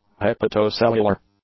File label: "hepatocellular", English; adjectives